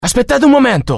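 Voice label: curious, Italian; mohaa; dialogue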